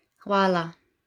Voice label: "Hvala", Slovene; Slovene page 7

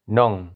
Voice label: "non", Galician; Galician page 45

